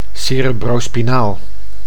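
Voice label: "cerebrospinaal", Dutch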